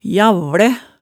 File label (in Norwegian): "javlæ", hemsedalsmaalet